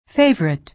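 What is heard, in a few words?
"favorite", American English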